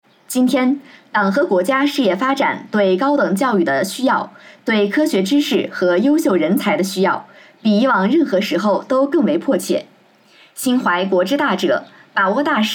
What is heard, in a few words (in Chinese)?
新闻播报